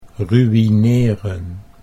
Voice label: "ruïneren", Dutch